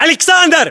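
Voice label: "aleksandr", battlechatter, Russian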